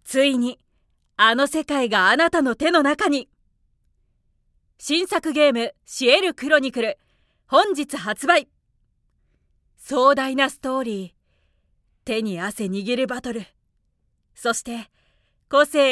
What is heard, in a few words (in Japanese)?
保険CM(安心)